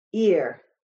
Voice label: ear, shona

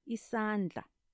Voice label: "Isandla", Ndebele

